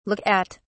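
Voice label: "look at", British English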